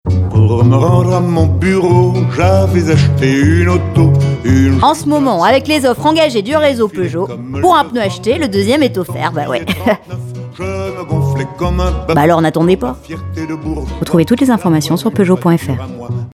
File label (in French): publicité